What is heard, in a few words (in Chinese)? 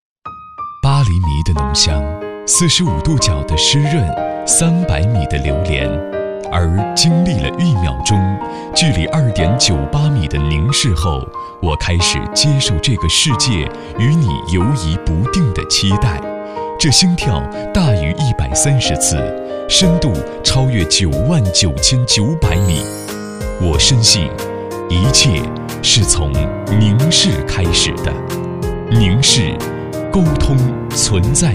电视台 宣; 电视台宣合成版